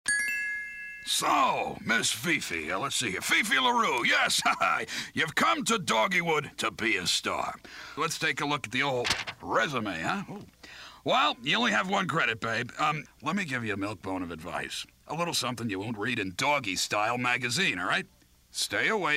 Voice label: Sleazy Talent Agent, cartoon; animation